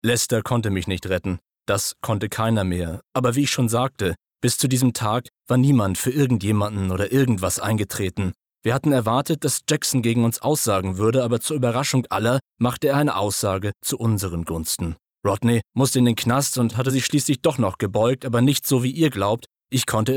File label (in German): casting Erzaehlung